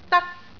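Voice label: "dak1", Cantonese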